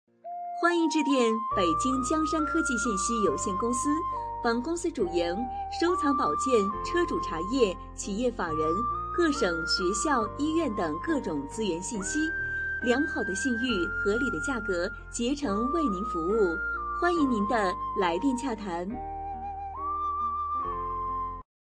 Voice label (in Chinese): 彩铃; 江山